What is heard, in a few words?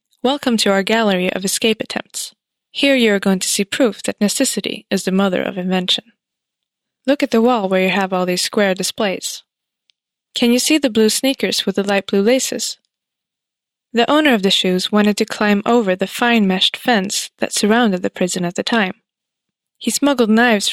cell, audioguider; eng